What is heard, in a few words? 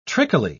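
"trickily", British English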